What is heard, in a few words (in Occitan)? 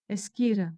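"Esquira", Gascon; Prêts pour l’Òc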